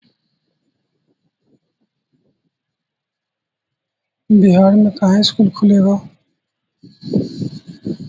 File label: voice message